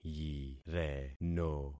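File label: "yireno", concatenated syllables; Affixing experiment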